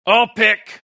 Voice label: announcer all pick; Rick and Morty